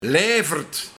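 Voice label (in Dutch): "Leiverd", Luik, Linnen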